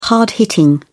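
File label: "hard-hitting", British English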